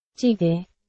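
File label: Burmese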